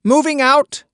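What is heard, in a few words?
Voices Units Allied Machinegunner select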